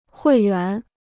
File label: "会员", Chinese